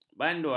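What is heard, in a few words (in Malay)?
"banduan", Malay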